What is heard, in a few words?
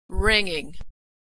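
"ringing", American English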